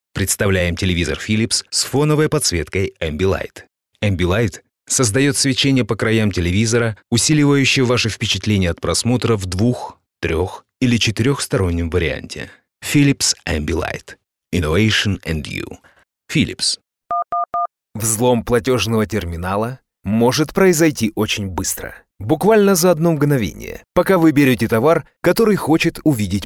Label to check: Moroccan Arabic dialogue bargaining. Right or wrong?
Wrong